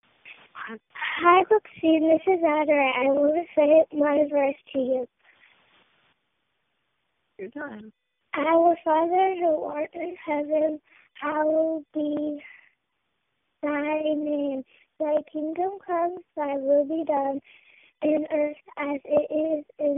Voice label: Lord's prayer recited